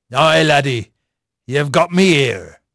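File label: English male; Pirates Of The Caribbean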